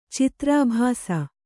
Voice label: "ಚಿತ್ರಾಭಾಸ", Kannada

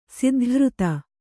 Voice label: "ಸಿದ್ಧಘೃತ", Kannada

